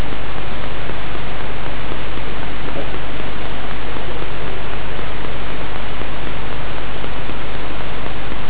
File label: male